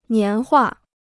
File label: "年画", Chinese